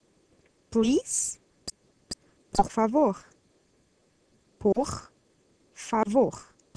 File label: Portuguese Please